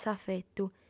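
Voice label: "s'afetu", female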